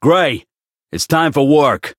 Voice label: variable chant; hardy